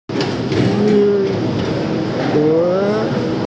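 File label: "ying1er2", Mandarin Chinese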